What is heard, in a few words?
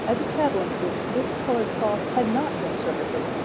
1 speaker diff Noise 4 ch; openMHA